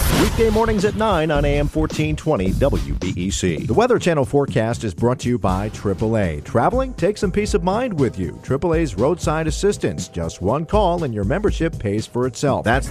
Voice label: news talk radio imaging

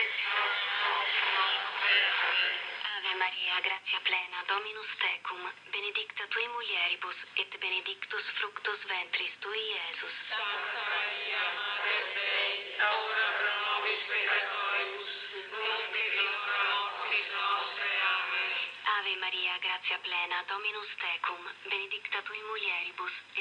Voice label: shortwave prayers

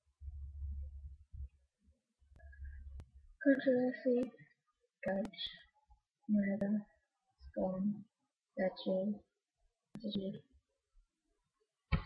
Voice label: bebington wirral uk female

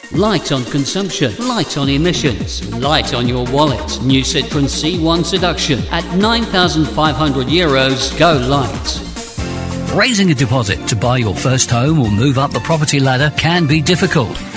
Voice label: voice over showreel

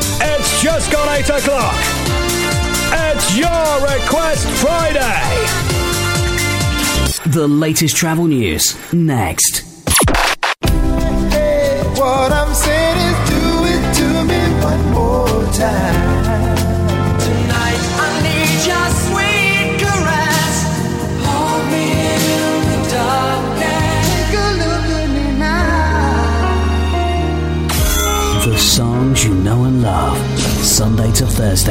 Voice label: radio jingle voice

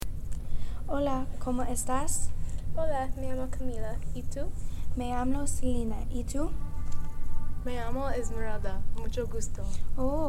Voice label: Spanish dialogue

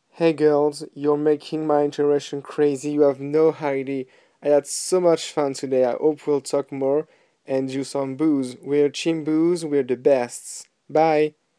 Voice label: weird voice

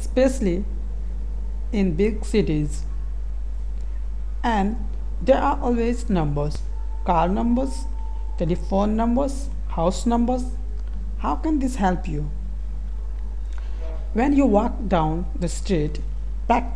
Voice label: Indian English accent

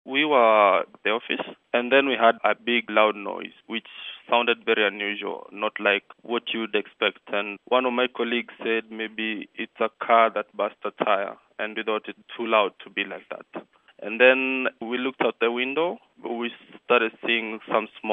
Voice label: eyewitness interview office worker kenya; explosion

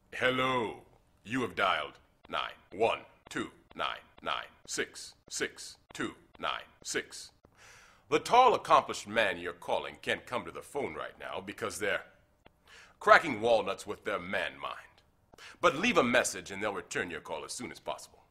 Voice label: voice male

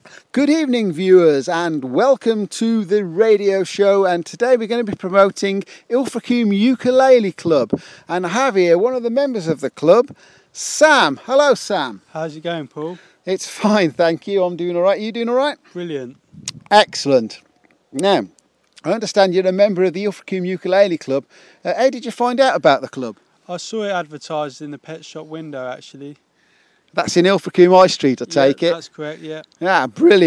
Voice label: interview; ilfracombe ukulele club

